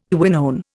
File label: "quinone", Indian